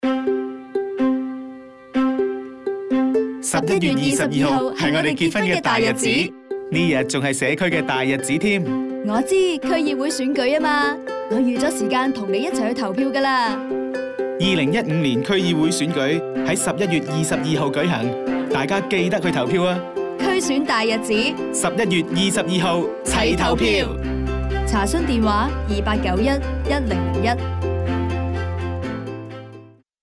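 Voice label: General Cantonese Radio Mix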